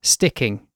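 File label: "sticking", British English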